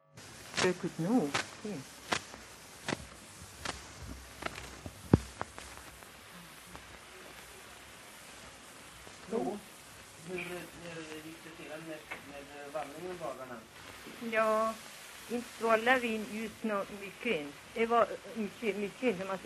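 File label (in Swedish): Järbo Gästrikland, dialekter; Folkminnen; Svenska sagor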